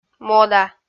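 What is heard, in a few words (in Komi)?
"мода", Komi, female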